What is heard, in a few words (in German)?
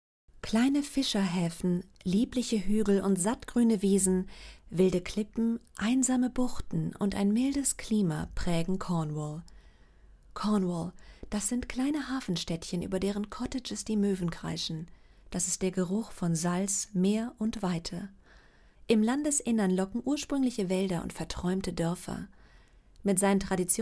Dokumentation Deutsch; CORNWALL